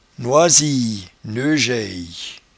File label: "noisiye", patois jura